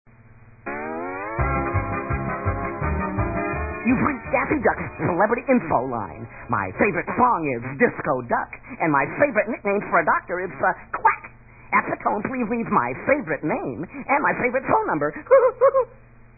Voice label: Celebrity Phone Line; daffyduck